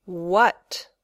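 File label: "what", English word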